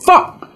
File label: "fuck", expletives